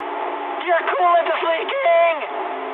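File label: damaged water mood high, speech English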